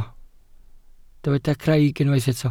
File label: "craig", Welsh